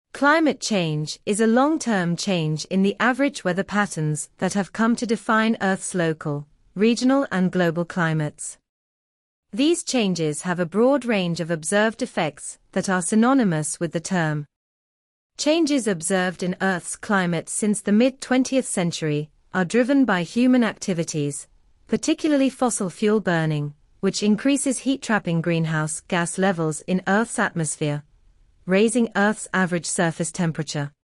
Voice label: UK